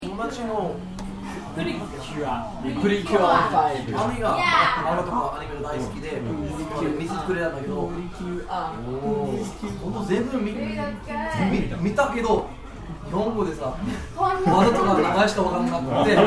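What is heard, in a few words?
Ambience Japanese Izakaya Foreigners Speaking Japanese, English speaking foreigners; English speaking foreigners trying to speak Japanese in a Japanese Izakaiya; Talking Sound Effects; Foundation1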